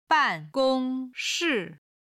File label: "ban4gong1shi4", chinese